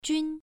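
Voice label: "jun", Mandarin Chinese, first tone, pronunciation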